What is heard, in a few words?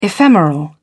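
"ephemeral", English, British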